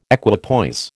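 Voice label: "equipoise", American English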